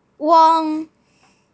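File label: "weng1", Mandarin Chinese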